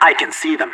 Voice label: radio enemy spotted; leet

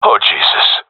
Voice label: scared, seal diver; agents

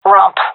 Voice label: loc ramp, gendermerie fem; vo

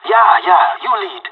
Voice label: following friend, pirate; Counter Strike Model Pack; CS:GO

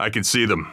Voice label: radio enemy spotted, heavy; vo; Counter Strike Model Pack; CS:GO; phoenix coop

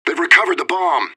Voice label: radio bot bomb pick; agents; fbihrt

